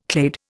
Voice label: "clade", Indian